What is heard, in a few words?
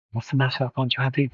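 Voice Acting es M, Spanish, male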